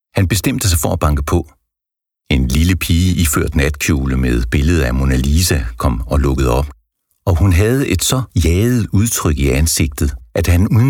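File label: Danish Audiobook Male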